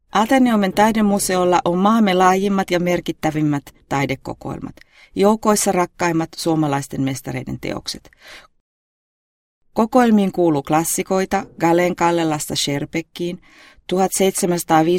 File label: locutora finlandesa finnish voiceover; locutora de Finlandia